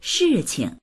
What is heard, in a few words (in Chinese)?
"shi4qing", voices